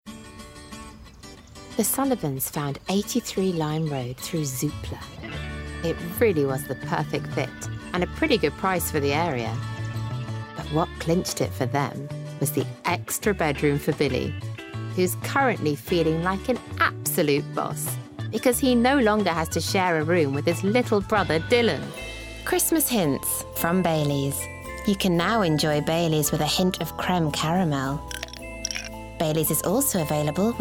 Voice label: Commercial Montage

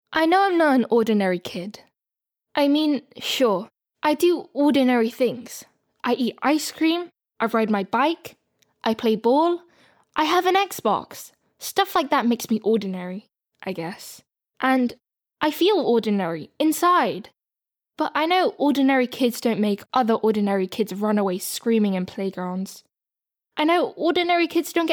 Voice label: Bookread

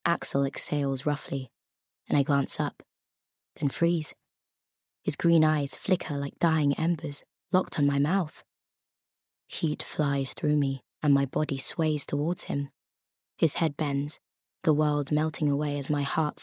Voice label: Bookread Adult Romance

